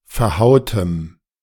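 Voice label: "verhautem", German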